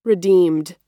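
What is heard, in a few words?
"redeemed", US English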